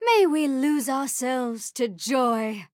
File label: vo, en-us; nilah